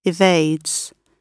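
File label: "evades", British English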